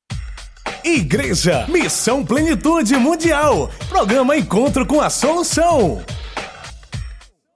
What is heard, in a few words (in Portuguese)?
locutor